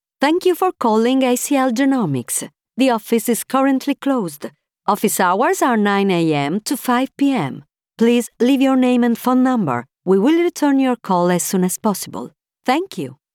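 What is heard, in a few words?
Italian, female, accent English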